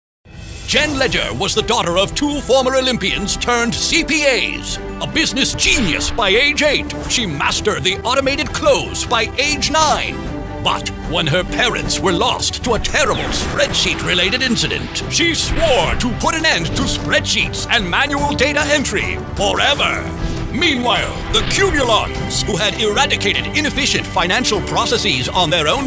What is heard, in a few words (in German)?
TV Werbespots Online Werbespots, sprecher; Profi Publikum; NATIVE PROFISPRECHER